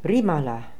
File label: "Rimala", pronounciations; Fourth Way; Beelzebub